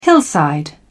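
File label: "hillside", British English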